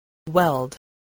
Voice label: "weld", spelling